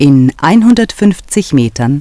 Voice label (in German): in 150 Metern, Navigation; DISTEFORA Navigation; Mobile Navigator Notebook